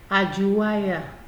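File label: "ajuwaya", Nigerian Pidgin, female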